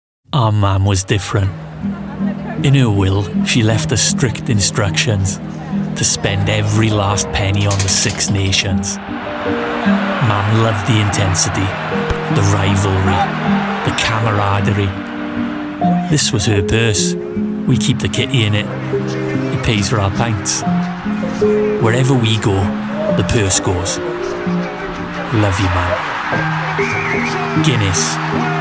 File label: Commercial; home studios